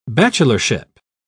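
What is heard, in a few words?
"bachelorship", British English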